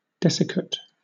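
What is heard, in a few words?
"desiccate", British English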